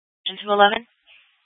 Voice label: Fire Departments Mesa Simulcast; TOPAZ

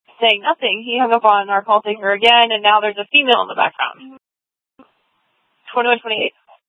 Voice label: Police South Dispatch

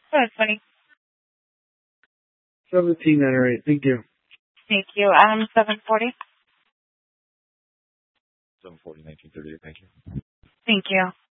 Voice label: Sheriffs Office, scanner

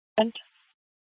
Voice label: Dispatch; Scottsdale

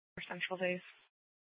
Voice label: Dispatch, Mesa PD; 4 Superstition Dispatch

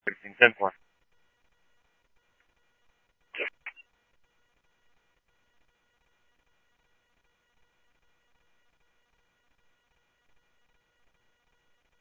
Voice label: Scottsdale Police, scanner audio

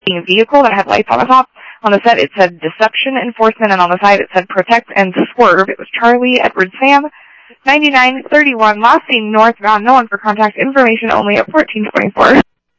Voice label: Phoenix PD, scanner audio

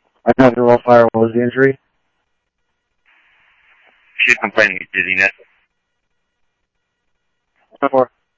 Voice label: Police, scanner audio trunk

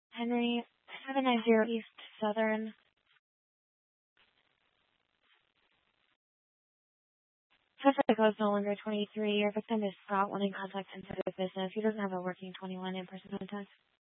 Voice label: Tempe PD, scanner; Rgnl Wireless Co-op (RWC)

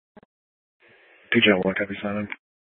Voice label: PD Dispatch

